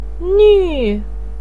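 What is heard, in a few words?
"nü4", Mandarin pinyin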